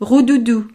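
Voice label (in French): "roudoudou", French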